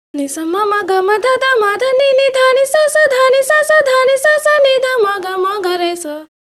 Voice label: voice, pitchshift 5; celemony melodyne